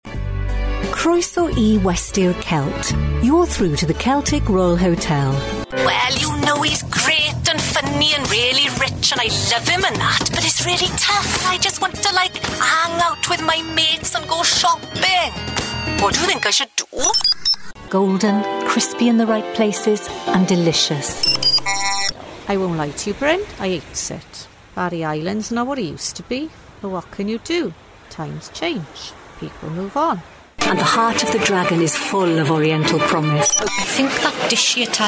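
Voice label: english welsh female commercial; voice demos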